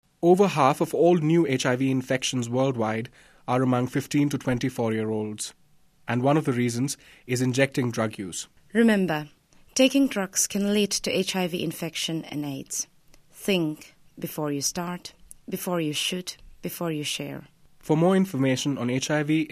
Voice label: spot indian eng